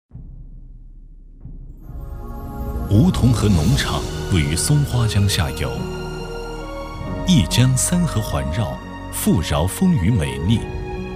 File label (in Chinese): 男, 浑厚专题, 国语男声配音; 记录; 梧桐河